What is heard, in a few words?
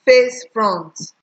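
"face front", Nigerian Pidgin, female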